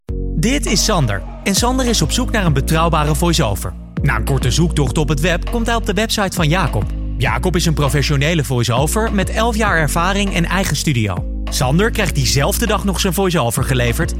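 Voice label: male, Dutch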